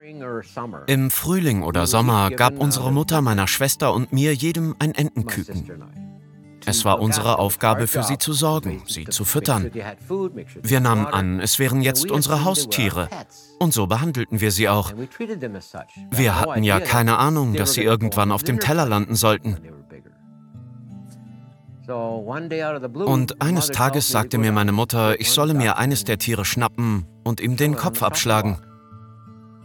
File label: voice over doku; Muttersprache Deutsch